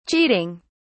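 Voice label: "cheating", British English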